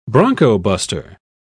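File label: "broncobuster", British English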